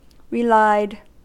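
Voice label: "relied", US English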